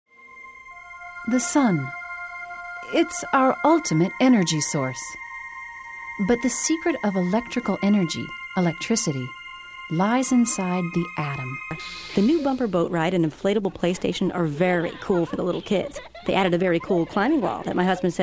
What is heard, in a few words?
VO demo, voiceover